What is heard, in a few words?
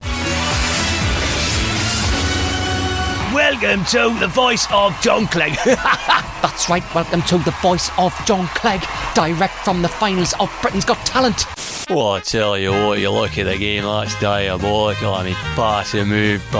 Impressions